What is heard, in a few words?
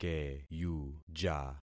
"keyuja", concat syllables; Affixing experiment